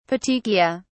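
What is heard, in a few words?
"petechiae", British English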